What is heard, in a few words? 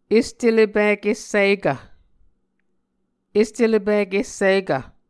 "estelepik essikv", muscogee; Mvskoke Words with Voicing Consonants; Martin, Jack B., and Margaret McKane Mauldin. 2023. A Dictionary of Creek/Muskogee